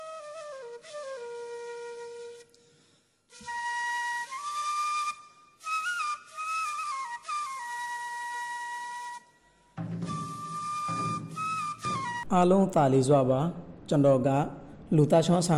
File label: Rakhine Awareness Message on Mosquito Bite